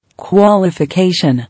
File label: "Qualification", English